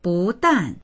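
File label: "bu dan", Mandarin; 不但; ～ばかりでなく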